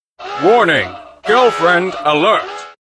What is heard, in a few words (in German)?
klingelton fuer freundin achtung freundin ruft an, girlfriend alert